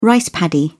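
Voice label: "rice paddy", British English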